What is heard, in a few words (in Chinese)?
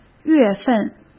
"月份", cmn; chinese-flashcards; hsk